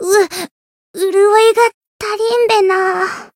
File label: win barely, jp